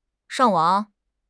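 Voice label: "shang4 wang3", Chinese; HSK; HSK3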